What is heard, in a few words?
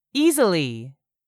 "EASILY", english; 3000 words